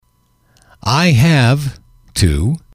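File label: i have to, male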